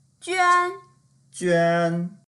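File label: "juan1", Mandarin Chinese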